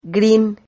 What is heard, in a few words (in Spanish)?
"grin", Silabas